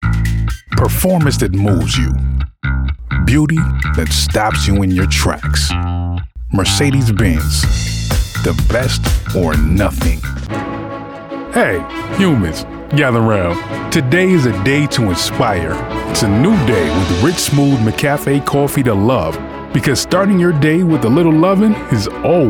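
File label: 30s40s Male African American Commercial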